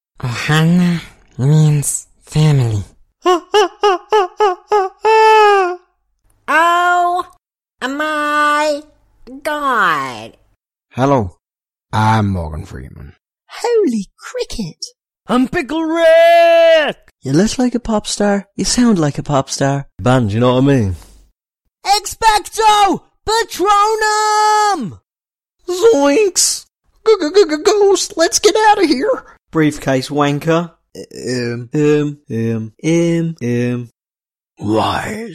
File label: fun voices